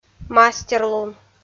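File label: "мастерлун", Komi, female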